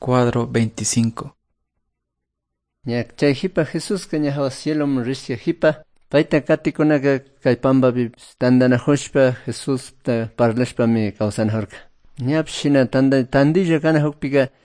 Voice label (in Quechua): good news quichua cayambe; quechua; bible